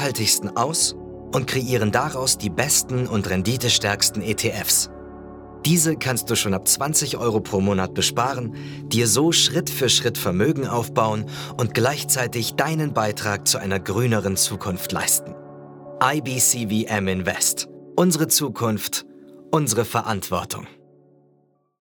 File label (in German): DE Image Finanz